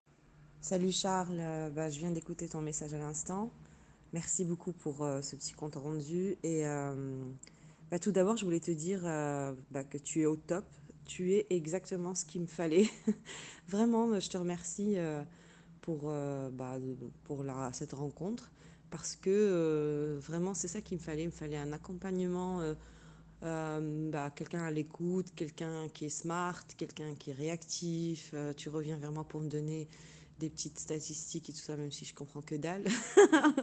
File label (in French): temoignage watsapp